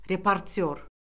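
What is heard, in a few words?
"reportyor", Azerbaijani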